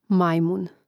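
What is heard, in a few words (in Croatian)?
"majmun" Croatian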